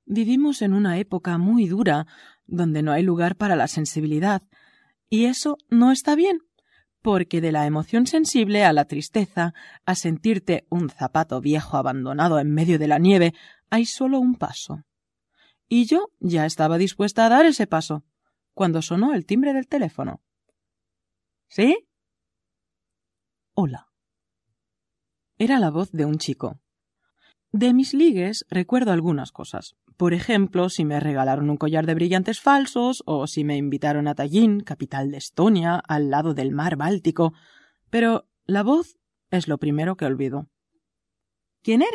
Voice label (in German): spanisch rolle; patty-diphusa